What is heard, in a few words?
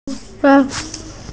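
"tongue", hearing impaired; she2tou5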